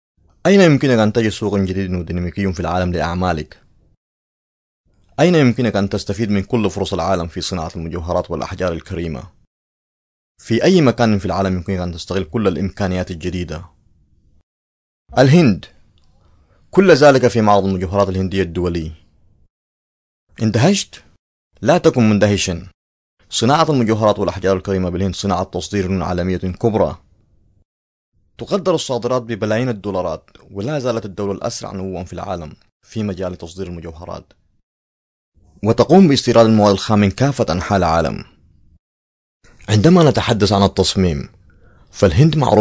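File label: arabic male voice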